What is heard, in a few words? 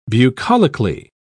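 "bucolically", English, British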